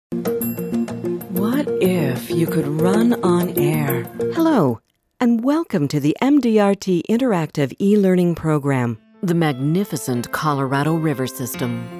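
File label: Corporate Narration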